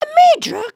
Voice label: talk kind; emotes

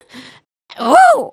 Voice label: Relief

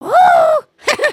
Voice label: Pick me, emotes

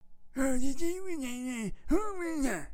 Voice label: Old Man Talk; dialog

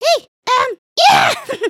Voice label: Pick me, emotes

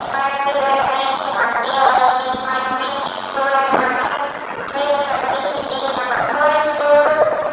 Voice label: announce loop; ambience

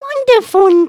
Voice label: group talk; emotes

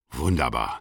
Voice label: positive feedback, german; aronwk collection; ndaudio

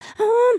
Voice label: talk scared; emotes

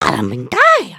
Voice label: group talk; emotes

